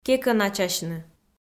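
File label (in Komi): "кекӧначасьны", Komi, female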